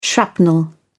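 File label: "shrapnel", British English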